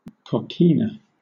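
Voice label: "coquina", British English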